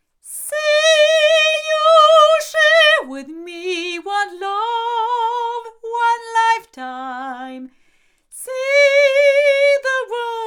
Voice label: Behringer ECM8000 female, microphones recordings

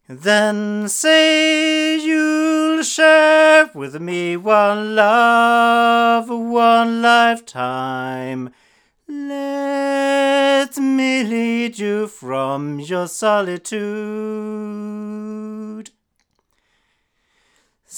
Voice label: Violet Finger male, microphones

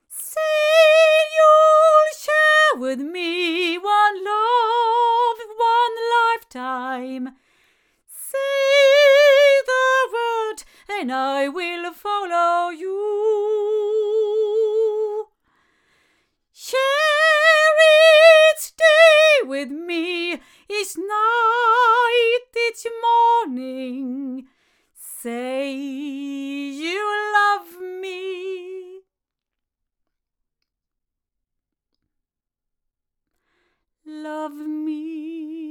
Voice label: Blue Bluebird female

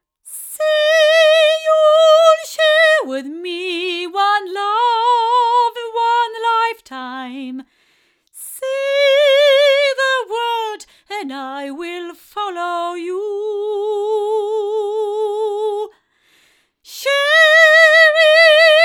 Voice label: ND6000 female; JJLabs

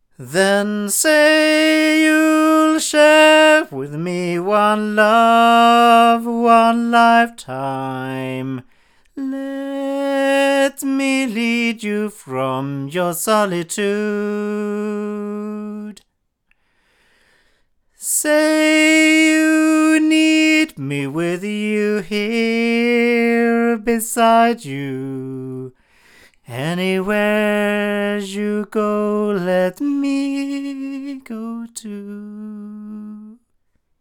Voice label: sE 3 male; se electronics

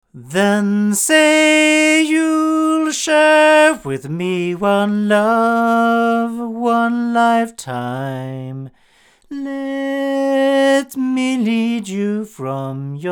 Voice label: Oktava MK012 omni male

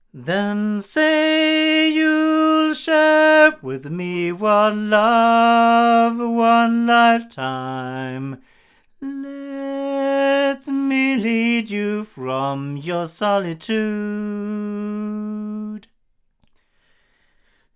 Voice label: sE Z5600a II cardioid male; se electronics

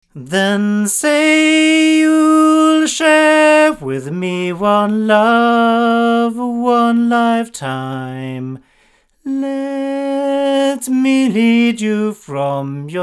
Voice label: omni male; FC3; GoldenAge

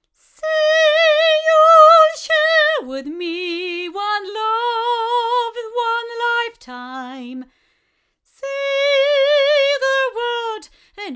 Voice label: Golden Age FC3 8 female

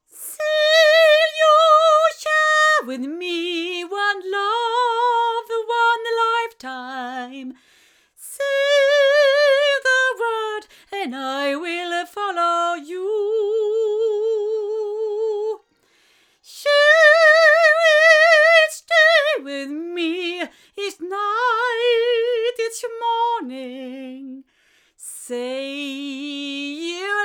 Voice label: Blue Yeti Pro cardioid female

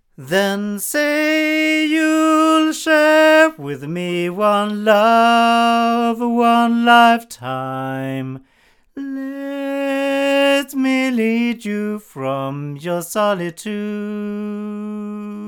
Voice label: Manley Reference cardioid male

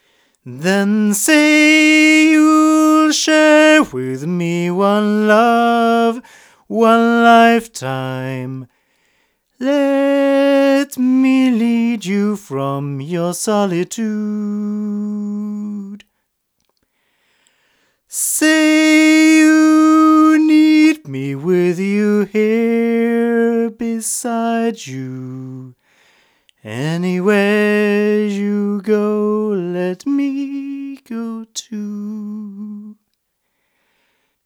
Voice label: male, microphones, Milab LC25

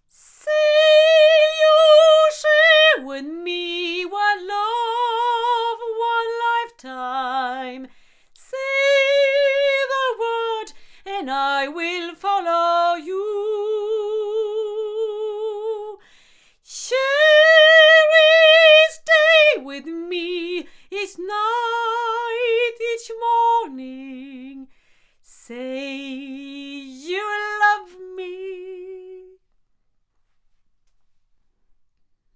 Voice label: Earthworks QTC1 female, microphones

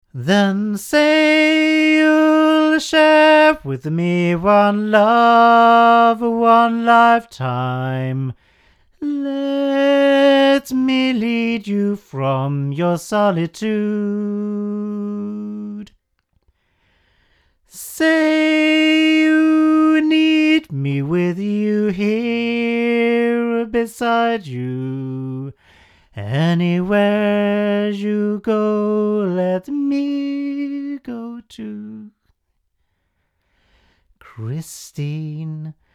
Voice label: CR-14 male; Avant

